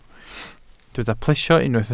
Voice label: "plesio", welsh

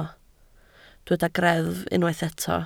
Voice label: "greddf", Welsh

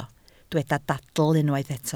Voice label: "dadl", Welsh

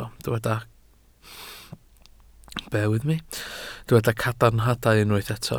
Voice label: "cadarnhadau", Welsh

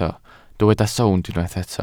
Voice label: "sownd", Welsh

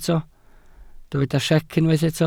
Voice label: "siec", Welsh

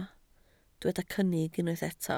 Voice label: "cynnig", Welsh

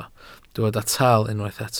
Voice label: "taal", Welsh

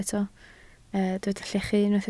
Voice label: "llechu", welsh

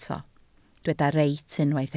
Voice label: "reit", Welsh

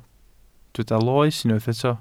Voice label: "loes", Welsh

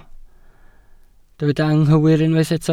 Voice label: "anghywir", Welsh

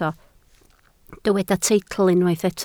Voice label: "teitl", Welsh

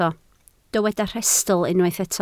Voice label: "rhestl", Welsh

